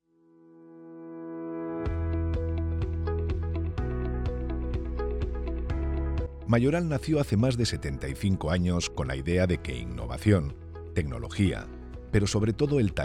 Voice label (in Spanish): Corporativo